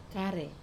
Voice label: "kare", breadfruit seed, wamesa